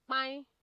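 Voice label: "kpain", Nigerian Pidgin, female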